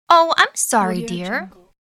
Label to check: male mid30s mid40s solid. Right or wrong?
Wrong